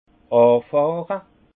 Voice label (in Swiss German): "anfahre", alsace; vin; cavaillonner